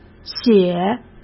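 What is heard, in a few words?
"血", Mandarin Chinese; chinese flashcards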